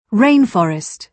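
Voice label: "rain forest", British English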